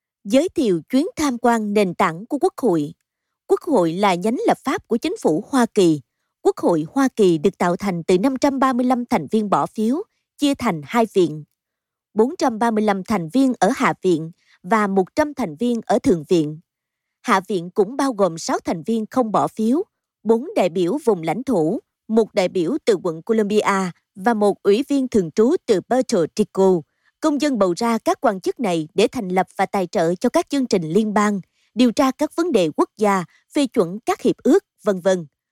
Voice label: Vietnamese female; Foreign language voice over